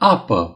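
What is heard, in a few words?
"water", Romanian; gcompris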